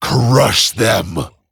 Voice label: Male Dragon, TRIGGER CRUSH

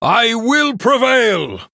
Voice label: Male Human Hero Power; Edwin; Scabbs

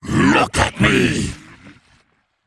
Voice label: Male Observer Attack

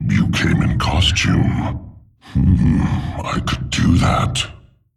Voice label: Male Demon; Malchezaar; Medivh Skin

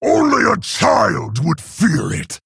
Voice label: Male Orc MIRROR START

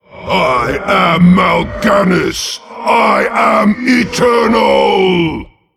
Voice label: Male Nathrezim Greetings

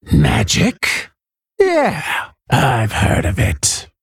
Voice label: Female Worgen Play

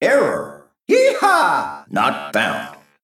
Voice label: Male Mech Flavor

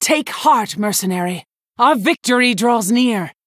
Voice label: Female Human; Balinda Stonehearth

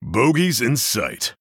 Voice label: Male Human In Game Boss Idle; Ichman

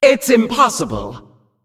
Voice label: Female Demon ERROR GENERIC

